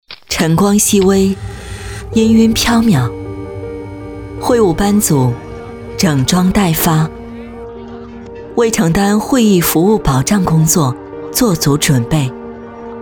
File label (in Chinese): 女 专题 偏走心的专题; 温暖会议